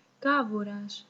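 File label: wrench adjustable desc, Greek; tuxpaint-stamps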